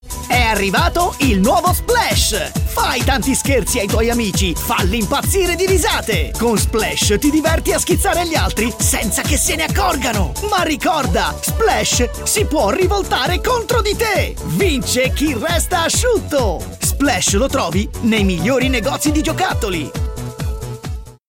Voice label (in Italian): Spot